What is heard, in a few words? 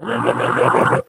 angry